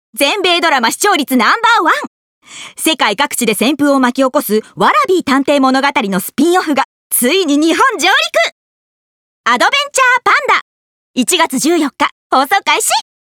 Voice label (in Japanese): 番宣